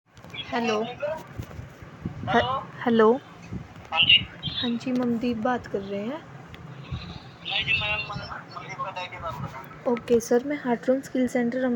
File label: call recording